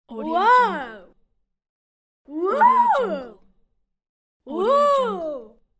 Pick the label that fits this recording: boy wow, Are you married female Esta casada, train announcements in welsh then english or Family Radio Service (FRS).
boy wow